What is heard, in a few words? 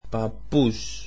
greek grandfather